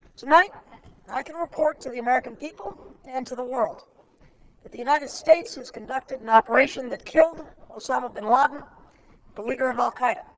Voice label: to female, speech warping; obama